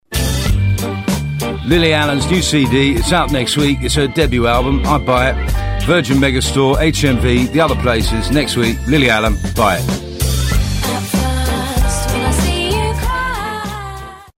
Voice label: Commercial; Vocal Point